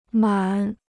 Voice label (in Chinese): "满", Chinese